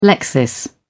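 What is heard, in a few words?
"lexis", British English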